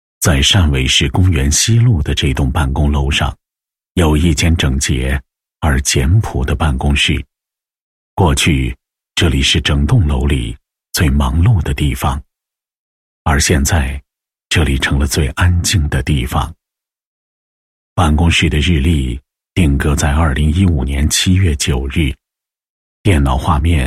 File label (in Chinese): 男 人物 汇报片; 唐某事迹